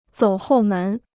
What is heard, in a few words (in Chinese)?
"走后门", Chinese